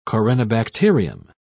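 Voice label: "corynebacterium", British English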